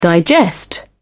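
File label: "digest", en, British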